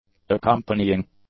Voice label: "accompanying", english; adjectives